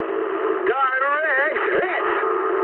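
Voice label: English bomb success mood high; War Thunder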